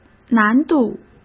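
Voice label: "难度", Mandarin Chinese; hsk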